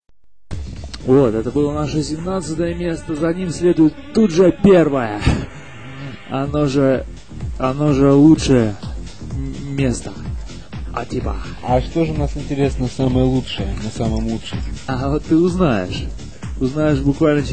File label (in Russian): reklama